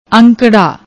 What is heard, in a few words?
"aunkda", Punjabi